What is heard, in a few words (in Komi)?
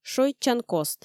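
шойччан кост, Komi, female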